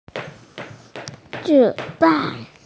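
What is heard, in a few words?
"zi3dan4", Mandarin Chinese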